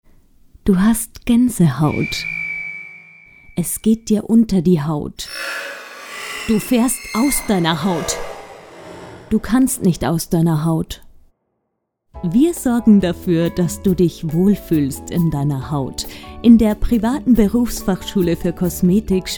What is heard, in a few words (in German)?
Werbung; Schmalzbauer Liebl